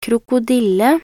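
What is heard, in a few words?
"krokodille", Norwegian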